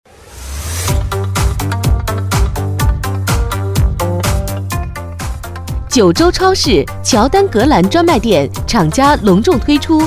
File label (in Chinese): 卖场叫卖; 鞋; 乔丹